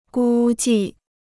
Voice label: "估计", Chinese